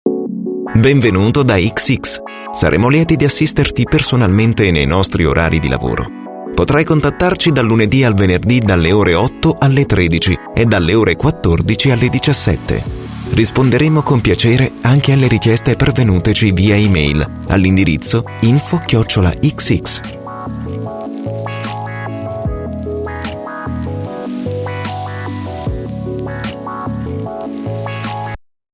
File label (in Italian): segreteria telefonica